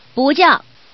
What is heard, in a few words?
Woman No Order, woman; guizhou